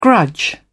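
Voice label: "grudge", British English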